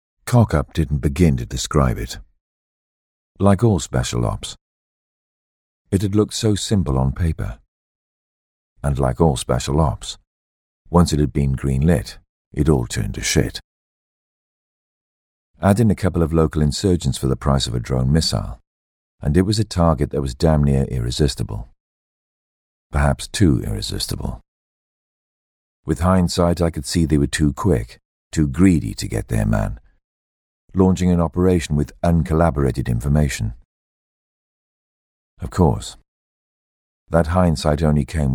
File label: Narration Reading; Compilation – Various Styles